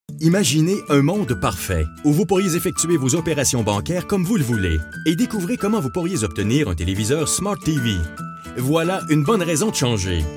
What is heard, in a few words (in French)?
Voix-Off; TD Bank Compte cheque operations illimitees